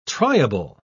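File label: "triable", British English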